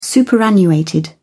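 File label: "superannuated", English, uk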